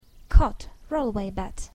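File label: "cot, rollaway bed", English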